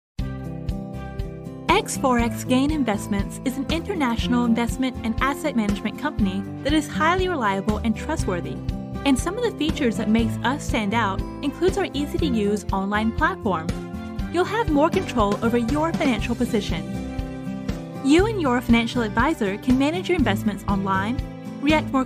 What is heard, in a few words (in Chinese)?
女 轻松讲解